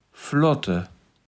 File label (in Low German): "flotte", kaevels platt